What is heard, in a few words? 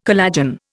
"collagen", Indian